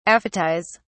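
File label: "aphetize", British English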